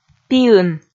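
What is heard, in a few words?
"пиын", Komi, female; audio dict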